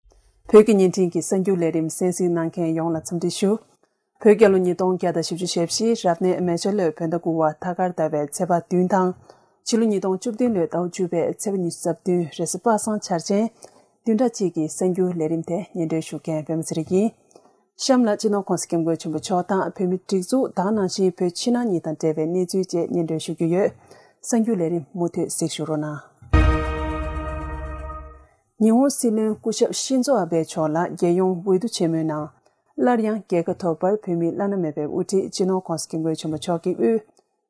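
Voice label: Tibetan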